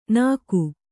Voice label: "ನಗ", kannada